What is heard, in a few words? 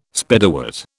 "Spiderwort", British